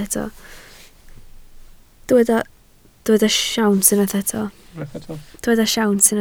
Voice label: "siawns", Welsh